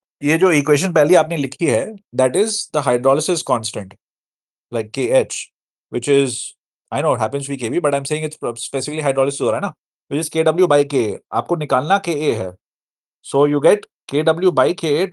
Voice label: voice message